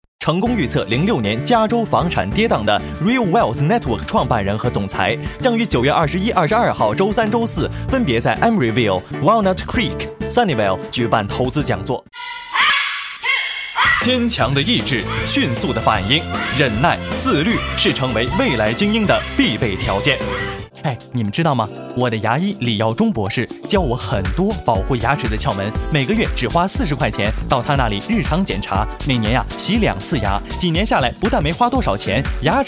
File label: Chinese Mandarin Commercial